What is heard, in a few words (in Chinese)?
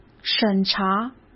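"审查", Mandarin Chinese; chinese-flashcards; hsk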